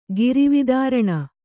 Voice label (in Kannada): "ಗಿರಿವಿದಾರಣ", Kannada